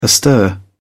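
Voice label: "astir", British English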